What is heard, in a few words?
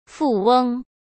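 "富翁", Mandarin Chinese; hsk; chinese-flashcards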